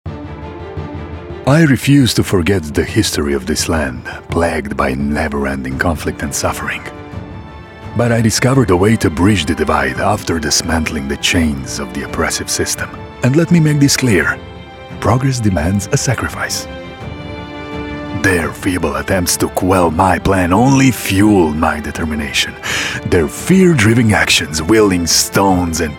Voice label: male english with croatian accent narration

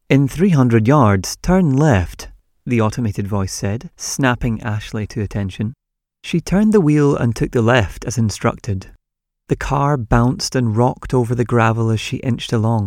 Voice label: male english scottish narration compilation

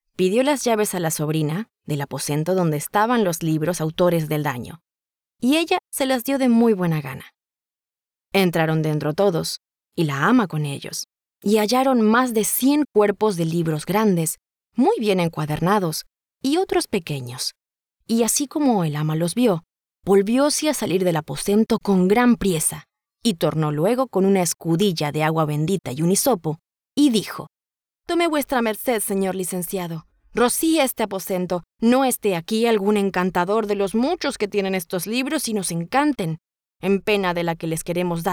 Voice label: female neutral spanish latam audiobook narration

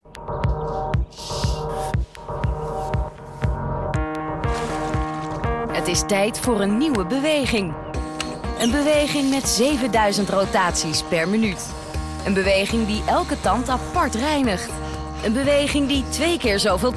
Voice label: female Dutch commercial; oral b

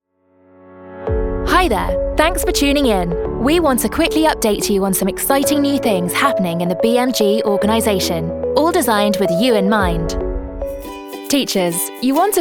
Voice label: female english corporate compilation; Devine Voices

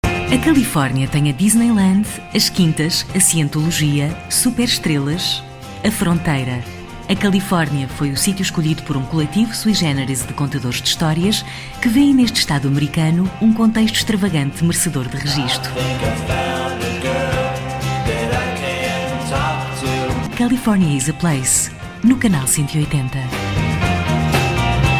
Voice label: female portuguese tv promo

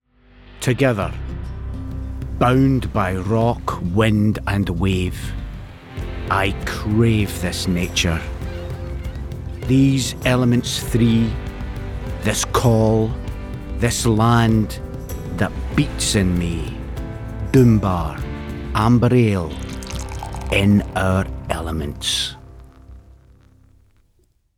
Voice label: male english scottish commercial; doom bar

